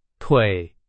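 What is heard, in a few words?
Chinese, vocabulary; hsk2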